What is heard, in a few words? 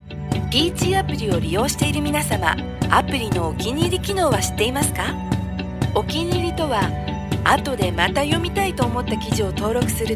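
Japanese narration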